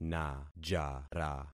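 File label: "najara", concatenated syllables; Affixing experiment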